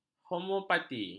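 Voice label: "homeopati", Malay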